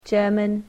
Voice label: "German", english